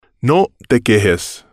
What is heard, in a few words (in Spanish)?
no te quejes, Spanish